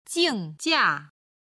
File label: "jingjia", Chinese